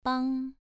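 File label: "bang" Mandarin, second tone, pronunciation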